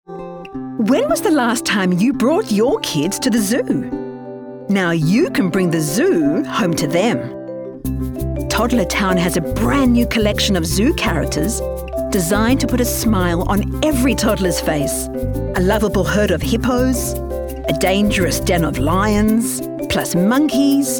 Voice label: VO demo